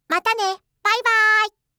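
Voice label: "mata ne baibai", Japanese; aisatsu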